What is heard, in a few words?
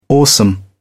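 "awesome", British English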